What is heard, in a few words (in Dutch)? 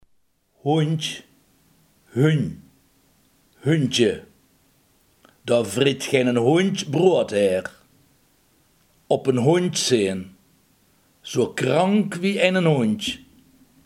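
hond, Limburgse gesproken woordenboeken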